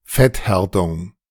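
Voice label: German "Fetthärtung"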